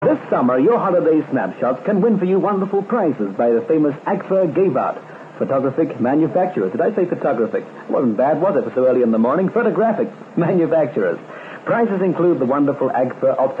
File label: Radio City commercial Camera User Magazine